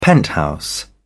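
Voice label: "penthouse", British English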